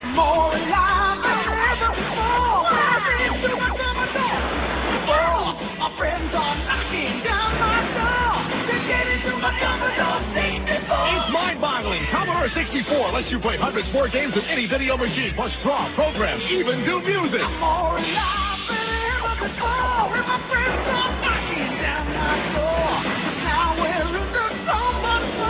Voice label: C64 Commercial